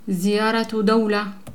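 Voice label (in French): "visiter un pays", Arabic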